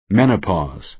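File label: "menopause", American English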